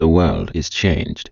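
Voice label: Elf Taunt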